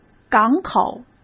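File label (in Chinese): "港口", Mandarin Chinese; chinese-flashcards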